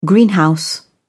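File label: "greenhouse", British English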